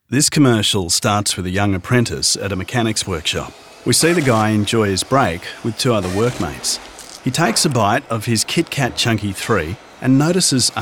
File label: research narrative